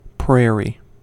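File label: "prairie", US English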